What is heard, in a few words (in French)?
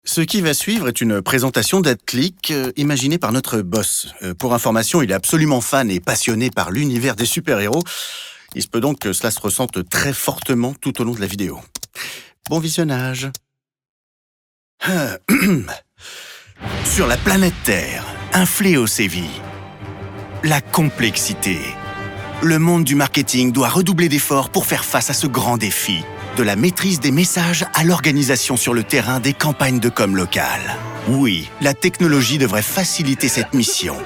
film de presentation Humoristique Voix; ADCLEEK